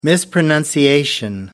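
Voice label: "mispronunciation", British English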